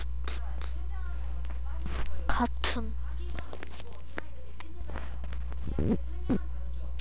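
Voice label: "cartoon", spoken word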